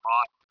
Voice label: bot Tone